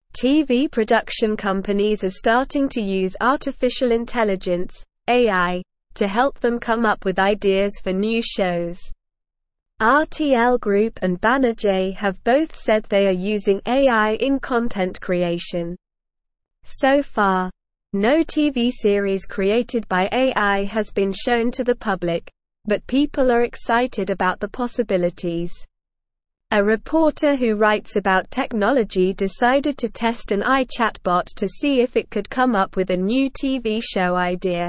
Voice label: English SLOW Reading